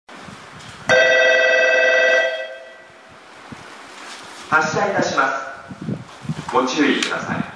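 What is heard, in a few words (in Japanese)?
発車, 駅放送; 音質が悪め 割と広範囲に響きます。; yachiyo-chuo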